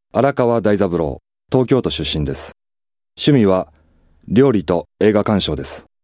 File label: freetalk, male voice